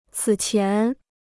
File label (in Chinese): "此前", Chinese